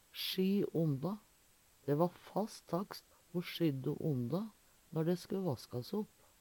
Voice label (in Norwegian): sjy onda, Numedalsmål